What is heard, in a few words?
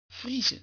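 "vriezen", Dutch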